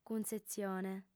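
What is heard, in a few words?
"cuntzetzione", female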